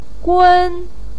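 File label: "gun" first tone, Mandarin Chinese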